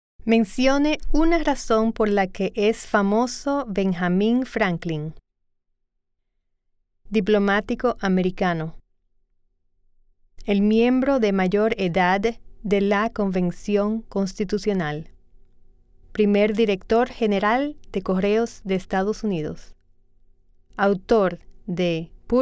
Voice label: Spanish